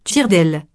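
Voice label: "tire-d'aile", French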